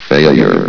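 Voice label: "failure", male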